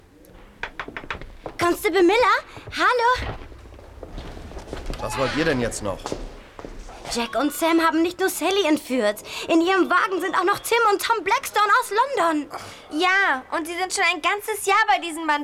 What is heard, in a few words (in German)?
hoerspiel; fuenf freunde; der Hörverlag / hr2 Kultur - Der geheimnisvolle Briefschreiber - Frühe Erzählungen